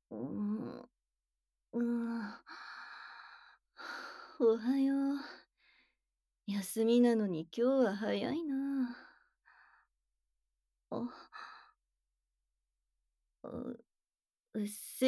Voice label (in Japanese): アラームボイス; むらた屋